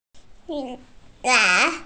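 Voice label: "qun2", Mandarin Chinese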